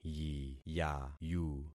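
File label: "yiyayu", concatenated syllables; Affixing experiment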